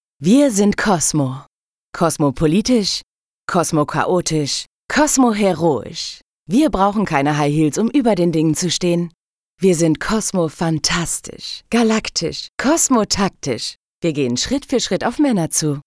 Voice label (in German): Werbung; Cosmo